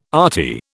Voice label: "arty", British